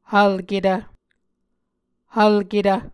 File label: "hvlketv", Muscogee